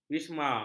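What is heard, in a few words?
"wisma", Malay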